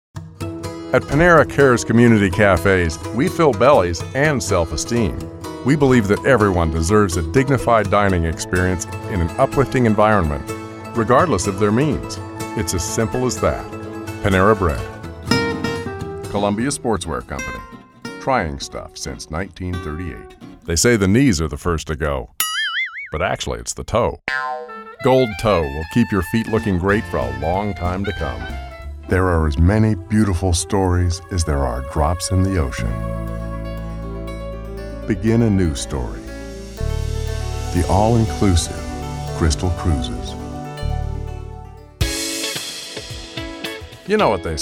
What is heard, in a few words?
Commercial